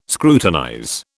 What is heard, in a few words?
"scrutinize", British